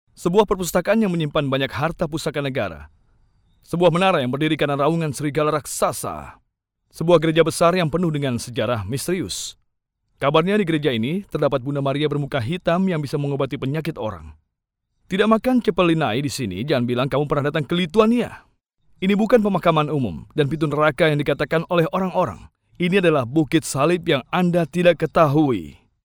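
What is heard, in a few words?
Indonesian, male, voice over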